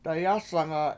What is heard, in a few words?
"dayAsanga", Washo; In The Hot Water